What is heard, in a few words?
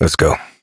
Horse Start Adult, Dialog; Duviri